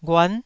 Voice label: "ghuan", Taiwanese

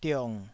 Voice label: "diong3", taiwanese syllable

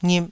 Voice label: "ngip2", taiwanese syllables

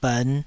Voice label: "bern5", taiwanese syllables; 台語音節發音檔案

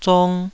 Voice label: "zong2", Taiwanese

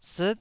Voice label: "siit9", Taiwanese syllable

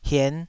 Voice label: "hien5", Taiwanese syllable

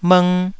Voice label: "bhng1", taiwanese syllables; 台語音節發音檔案

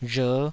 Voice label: "rher5", taiwanese

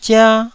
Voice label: "zia1", Taiwanese syllable

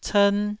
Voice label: "cern1", taiwanese Syllables

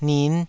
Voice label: "nin5", Taiwanese syllable

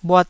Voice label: "bhuat1", taiwanese Syllables

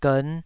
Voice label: "gern5", Taiwanese syllable

